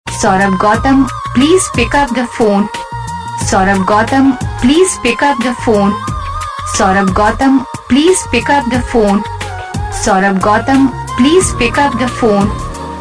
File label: pick up the phone saying, Ringtones